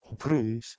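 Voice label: "h3bhruHs", Proto-Indo-European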